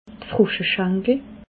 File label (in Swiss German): "frescheschenkel", alsace